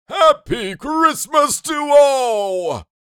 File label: Happy christmas santa claus voice